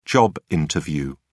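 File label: "job interview", British English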